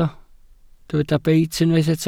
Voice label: "beit", Welsh

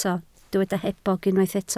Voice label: "hebog", Welsh

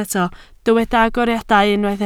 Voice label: "agoriadau", Welsh

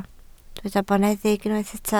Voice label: "boneddig", Welsh

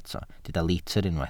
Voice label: "litr", Welsh

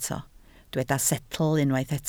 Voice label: "setl", Welsh

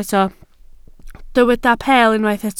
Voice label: "peel", Welsh

